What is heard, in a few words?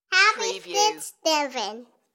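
Kid Says Happy Thanksgiving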